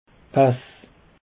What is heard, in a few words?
"pass", alsace